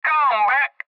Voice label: Cb Trucker Radio; Radio Voice; Blastwave